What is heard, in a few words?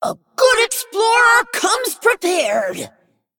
Female Dragon Play